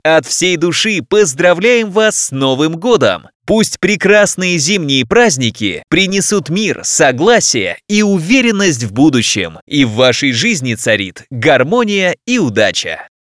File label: male